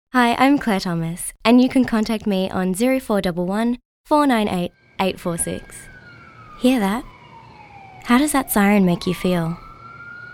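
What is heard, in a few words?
Voice Over; Actor, Voiceover; Location Perth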